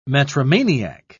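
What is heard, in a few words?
"metromaniac", English, British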